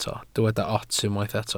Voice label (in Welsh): "ots", Welsh